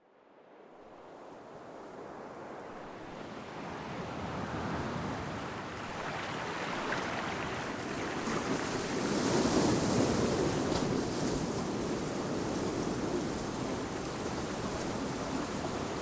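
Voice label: sea subliminal induction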